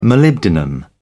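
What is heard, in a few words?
"molybdenum", British English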